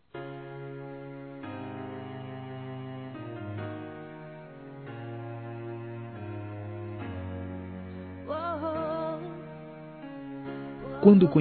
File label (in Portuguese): NAMORADA M; Aniversários; ANIVERSARIO romantico; masc